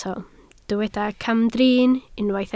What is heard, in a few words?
"camdrin", Welsh